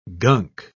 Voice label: "gunk", pronounce